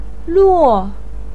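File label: "luo", Mandarin pinyin, tone 4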